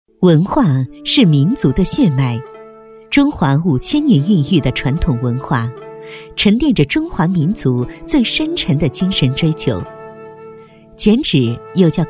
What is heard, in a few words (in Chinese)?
女-专题-剪纸专题